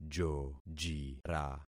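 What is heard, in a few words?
"jojira", concatenated syllables; Affixing experiment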